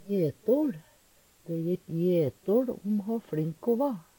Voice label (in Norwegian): jetol, Numedalsmål